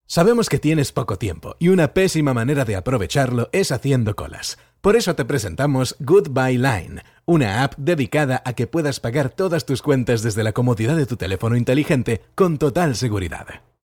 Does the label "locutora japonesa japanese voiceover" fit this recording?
No